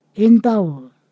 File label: "person", Ponosakan